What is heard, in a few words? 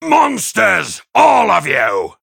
Male Human; Daelin